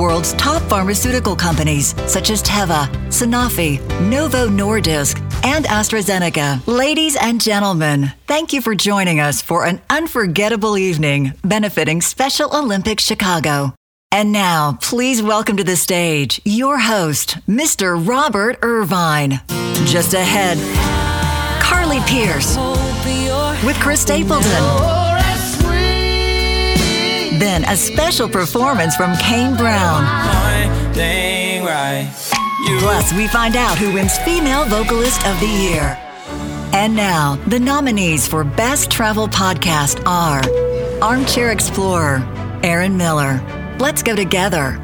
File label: Live Announce Demo